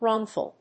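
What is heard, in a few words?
"wrongful", English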